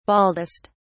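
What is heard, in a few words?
"baldest", British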